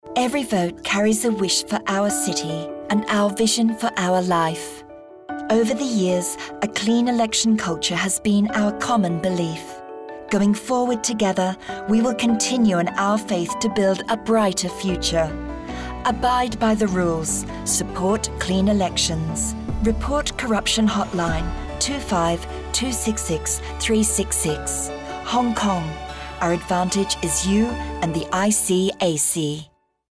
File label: radio en